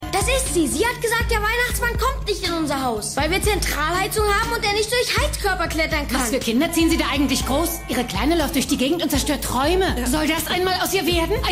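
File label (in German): Kleiner Junge Wütende Frau; Stimmproben; Alle Hassen Chris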